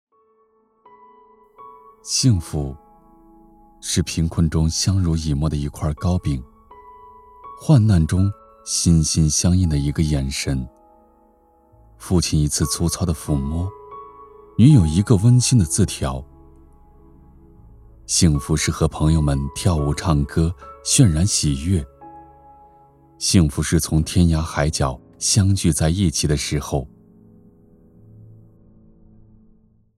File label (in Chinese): 样音走心独白